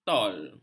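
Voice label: "atol", Malay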